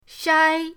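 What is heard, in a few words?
"shai", Mandarin pinyin, first tone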